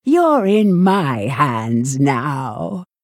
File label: Female Tortollan Play